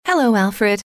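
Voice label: "hello", female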